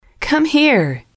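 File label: "come here", English, female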